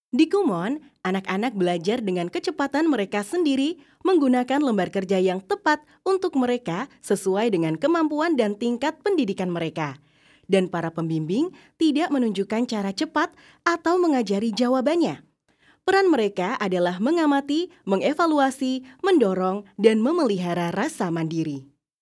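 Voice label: Indonesian casual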